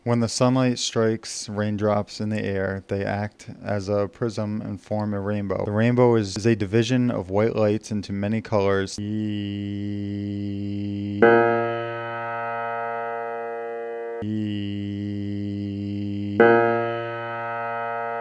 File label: fem example